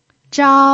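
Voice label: "zhao" Mandarin, first tone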